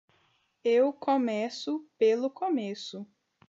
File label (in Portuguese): frase começo, Portuguese